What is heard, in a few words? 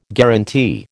"guaranty", American